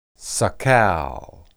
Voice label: "sakal", Hebrew

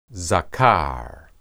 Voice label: "zakar", Hebrew

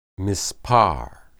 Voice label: "mispar", Hebrew

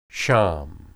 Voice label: "sham", Hebrew

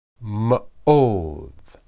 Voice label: meod, hebrew

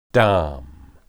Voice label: "dam", Hebrew

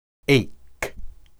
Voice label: "eyk", Hebrew

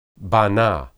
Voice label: "banah", Hebrew

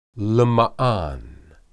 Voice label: "lemaan", Hebrew

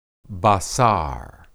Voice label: "basar", Hebrew

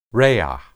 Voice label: "rea", Hebrew

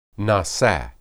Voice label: "nisa", Hebrew

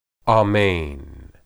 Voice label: amen, hebrew

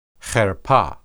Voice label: "herpeh", Hebrew

